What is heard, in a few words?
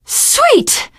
reaction positive, teen girl; survivor voice